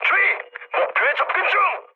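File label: Korean near waypoint mood high, speech; War Thunder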